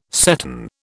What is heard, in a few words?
"seton", British